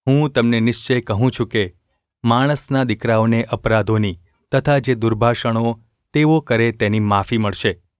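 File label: gu ulb mrk, Gujarati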